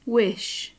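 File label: "wish", British English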